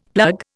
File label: "plug", Indian; Dictionary